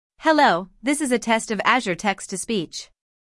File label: azure speech